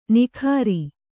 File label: "ನಿಕಾರಿ", Kannada